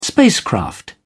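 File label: "spacecraft", English, British English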